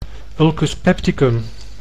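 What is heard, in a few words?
"ulcus pepticum", Dutch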